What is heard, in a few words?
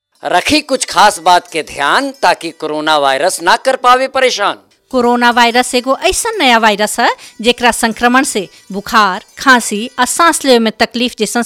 bhojpuri Radio Spot hand washing; Covid19